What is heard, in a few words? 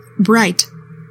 "bright", english; 3000 words